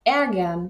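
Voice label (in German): "ärgern", German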